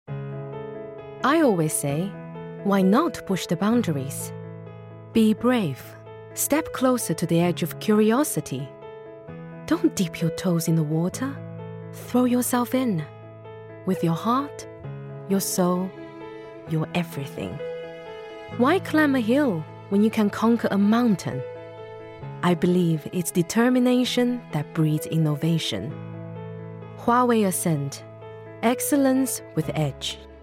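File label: Chinese Commercial; GoLocalise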